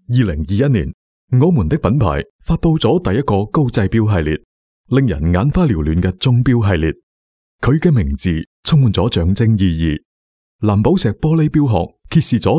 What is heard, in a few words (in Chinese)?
粤男 宣传片; 我們的品牌